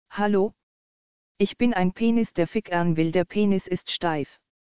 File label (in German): Sprachausgabe